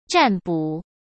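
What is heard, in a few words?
"占卜", Mandarin Chinese; hsk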